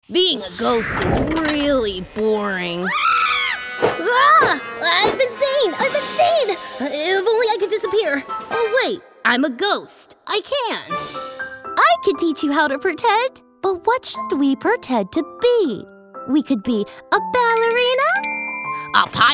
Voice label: Animation